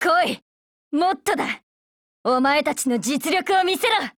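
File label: SKILL, Japanese; INSAS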